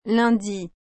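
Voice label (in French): "lundi", French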